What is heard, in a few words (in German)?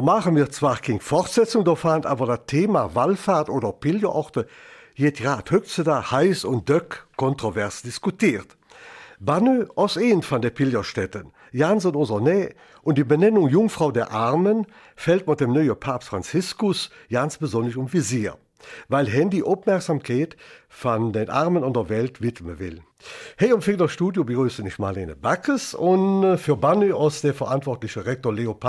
Eifeler Mundart; Jungfrau der Armen in Banneux